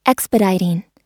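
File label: "expediting", US English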